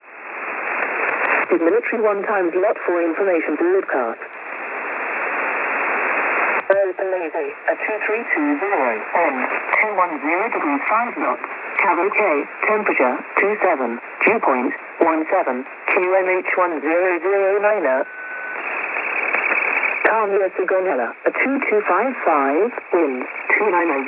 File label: Volmet, 5450kHz; RAF; websdr